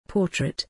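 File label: "portrait", English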